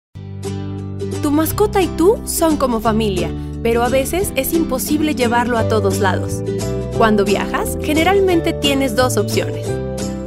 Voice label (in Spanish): voz video, voz en off; cuida mi mascota